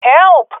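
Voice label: request help, swat female; vo; Counter Strike Model Pack; CS:GO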